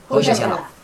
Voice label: "hodaçala", Chug, knife; noun